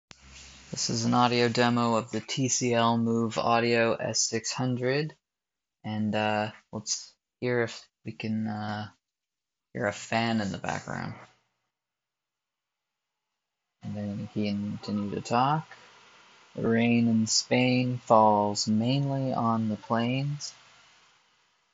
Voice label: TCL moveaudio s600 mic

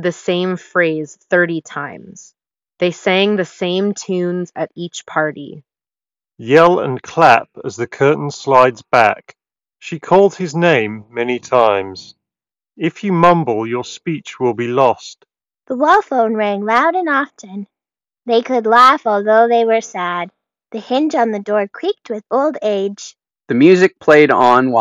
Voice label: Dual Driver ideal microphone sample; Monoprice

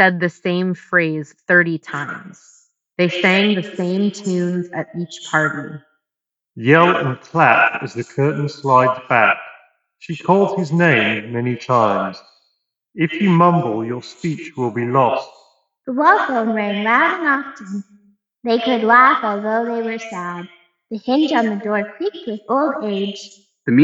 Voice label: reverb; Moondrop Space Travel 2; earbuds

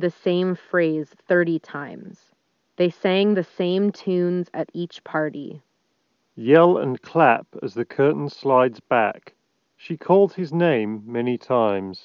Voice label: Ideal microphone sample; Focal Bathys

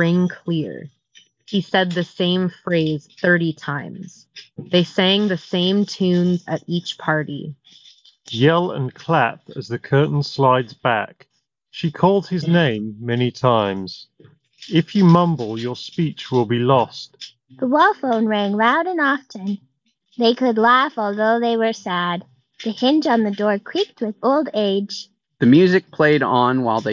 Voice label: Shokz Open Fit Office microphone sample

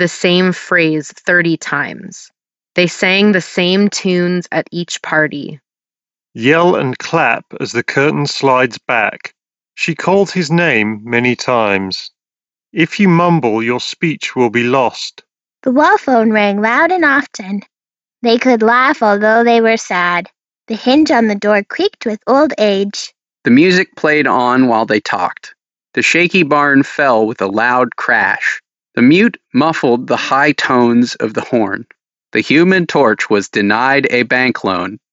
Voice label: Sennheiser HDB 630 ideal

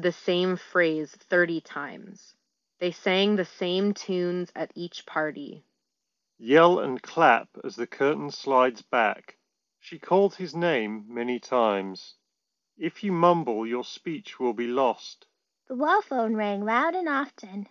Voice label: Ideal microphone sample; Bose Quietcomfort Headphones

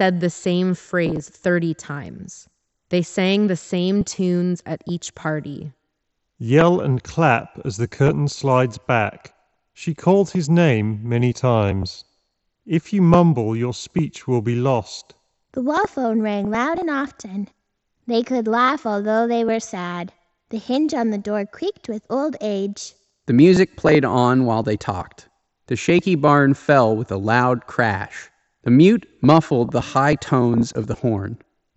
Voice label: reverb; HyperX Cloud Jet

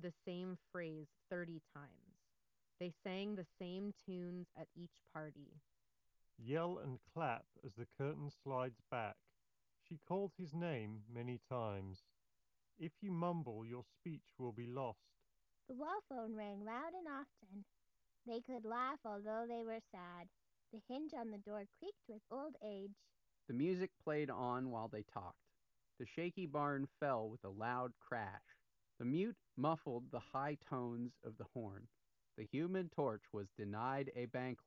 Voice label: Beats Studio Pro Ideal microphone sample

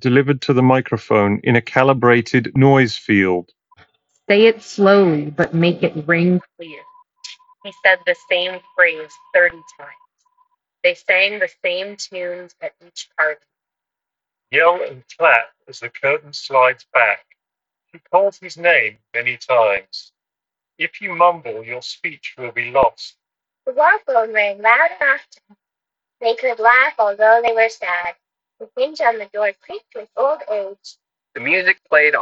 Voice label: Skullcandy Method 360 Street

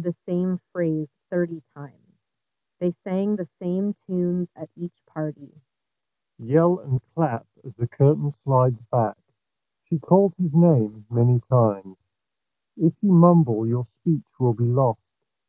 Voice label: Skullcandy Grind Ideal microphone sample

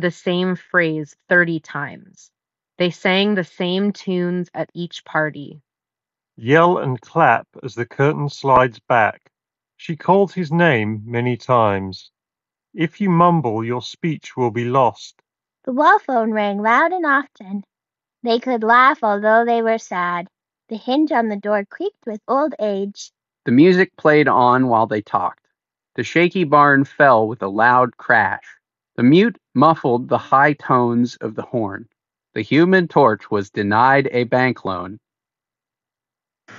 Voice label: Jabra Elite 8 Active Gen 2 Ideal

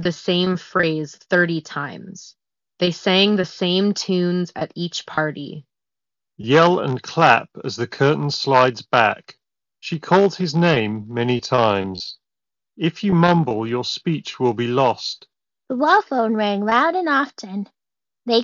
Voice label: Ideal microphone sample; Sony WF-1000XM5 Pre-release

